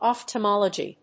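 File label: "ophthamology", English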